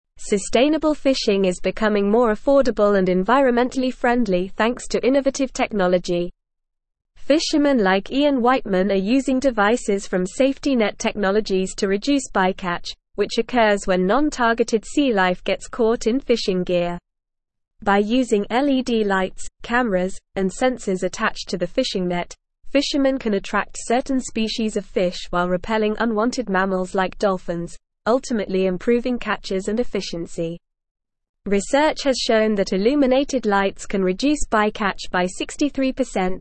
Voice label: English Newsroom Advanced NORMAL Reading; Advancements in Fishing Technology for Sustainable Practice